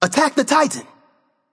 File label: vox attack m; smite; ganesha